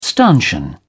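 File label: "stanchion", British English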